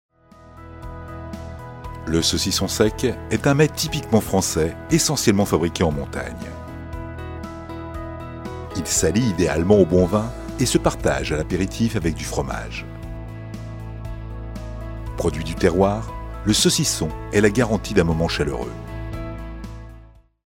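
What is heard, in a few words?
French, male, commercial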